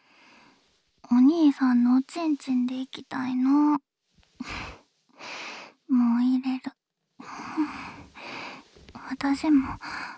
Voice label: ホテル_キス&囁き・耳舐め, ASMR; 騎乗位・挿入&ピストン&失禁&正常位&連続絶頂&正常位・中出し

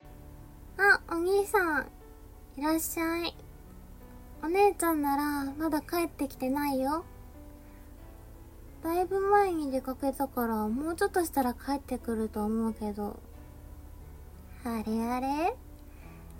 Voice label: ヤンデレ, シチュエーションボイス; 結婚式の前日、義妹が話しかけてきて…; エアネギトロ丼; 病娇 逆NTR

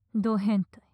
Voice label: 変態・強く・近・右, ASMR

